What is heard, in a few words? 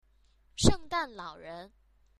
mandarin language course; kids